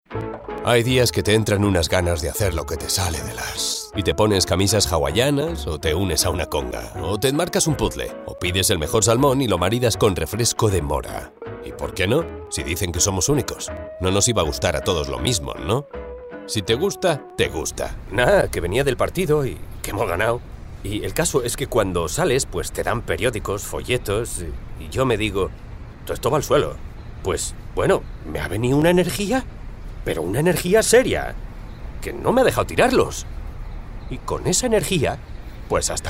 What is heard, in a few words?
male spanish comercial; ideasonora